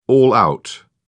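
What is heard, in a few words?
"all-out", English, British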